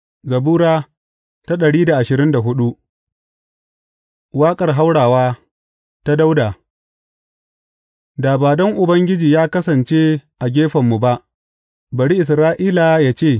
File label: hausa bible drama, psalms; hausa bsn nt drama